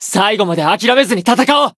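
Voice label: Voice variable chant, Japanese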